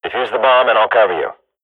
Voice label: radio bot cover defuse, swat; agents